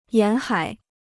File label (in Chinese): "沿海", Chinese